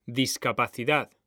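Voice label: "DISCAPACIDAD", Spanish; mekanta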